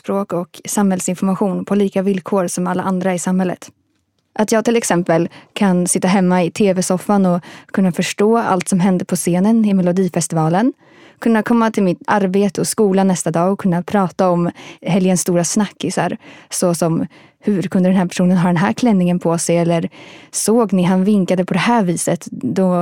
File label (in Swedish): Syntolk